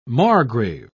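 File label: "margrave", English, British English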